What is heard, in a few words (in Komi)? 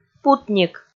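"путник", Komi, female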